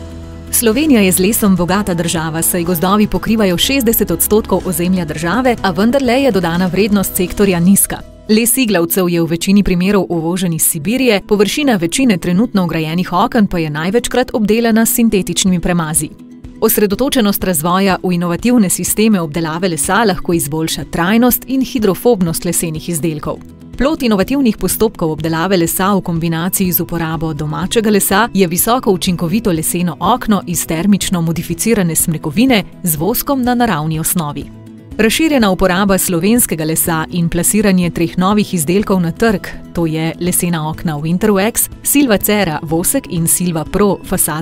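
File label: corporativo, Slovenian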